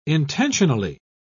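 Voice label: "intentionally", British English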